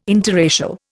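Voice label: "interracial", Indian English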